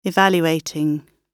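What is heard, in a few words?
"evaluating", British English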